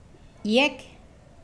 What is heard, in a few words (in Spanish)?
Nawat palabras bueno; pipil